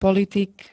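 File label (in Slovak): "politik", Slovak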